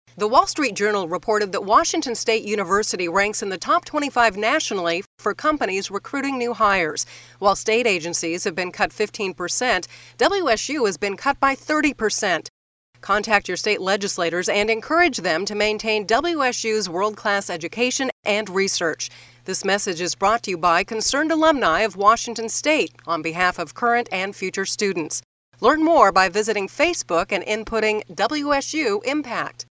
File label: radio spot; WSU